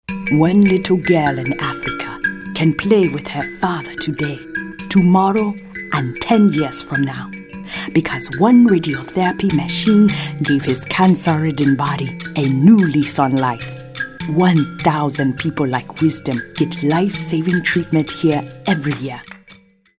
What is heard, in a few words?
storytellers